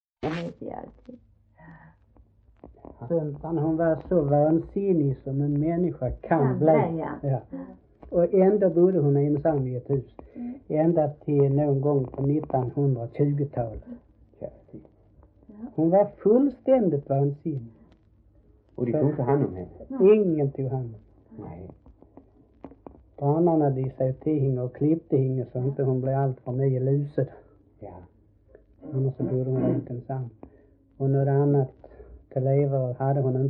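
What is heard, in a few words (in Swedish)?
Äspö Skåne, Svenska sagor dialekter; Folkminnen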